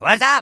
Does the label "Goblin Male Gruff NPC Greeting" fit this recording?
Yes